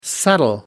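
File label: "subtle", English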